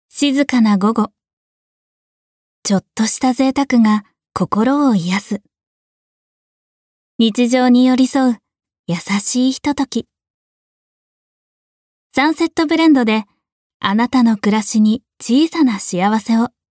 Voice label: female; narration; f otituita funiki